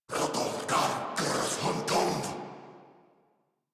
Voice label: Attack Corrupted Grineer Male; Warframe; Battle Barks

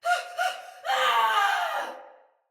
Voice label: Death Fire Sinecure Soldier Female; Battle; Barks; Dialog

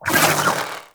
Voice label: Vocal Pain; Warframe; Sentients; Conculyst

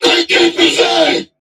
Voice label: Cover Me, Crewmen, en; Warframe; Corpus Crewmen; Crewman E; Dialog Barks Battle

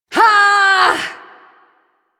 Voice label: Ability Long Cast, Barks

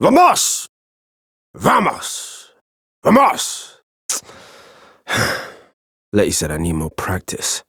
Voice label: Ambient Barks, en; Warframe; Mall Romance; Quincy

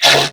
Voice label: Female, Grineer; New War; Narmer; Threatened

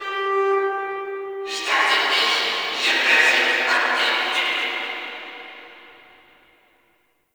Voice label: Guild Announcer; Warframe

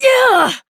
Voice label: Battle Adult; Warframe; Duviri Quest; Duviri Paradox Quest

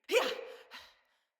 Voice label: Female, Battle Barks; Envoy

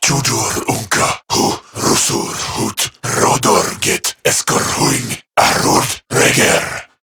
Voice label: Patrol Corrupted Grineer Male

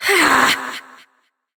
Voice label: Frustration; Evil Twin C; Warframe; Entrati Doppelganger; Battle Barks

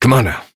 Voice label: Horse Start Adult, Dialog; Duviri

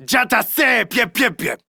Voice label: Player Spotted Corpus Male; en; Battle Barks

